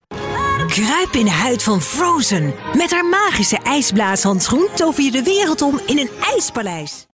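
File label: Dutch, female, commercial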